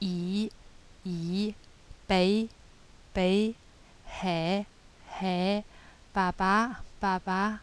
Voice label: vowels, yancheng